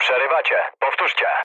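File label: Polish chat; War Thunder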